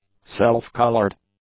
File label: "self-coloured", English; adjectives